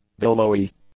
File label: "billowy", English; adjectives